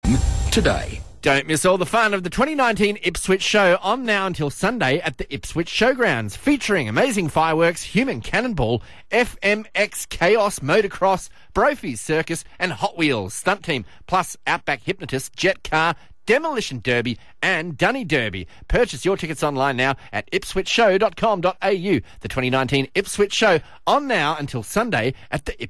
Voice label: Morning Live Read; 97.3 FM; Ipswich Show